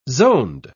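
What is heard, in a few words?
"zoned", British English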